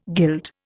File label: "guild", Indian